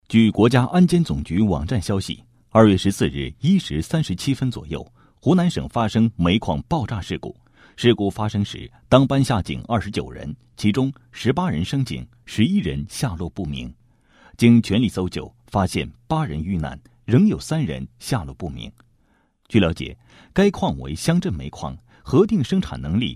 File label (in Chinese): 男 新闻